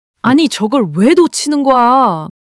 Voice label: anger; monologue